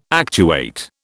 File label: "actuate", British